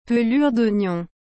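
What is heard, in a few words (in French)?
"pelure d ognon", French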